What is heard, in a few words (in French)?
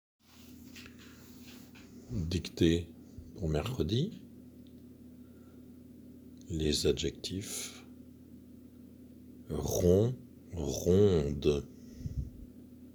Dictee mer